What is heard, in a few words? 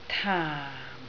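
"taam4" Cantonese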